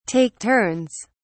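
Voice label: "take turns", British English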